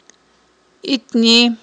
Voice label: "итни", Aghul